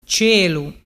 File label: "cielu", dialettu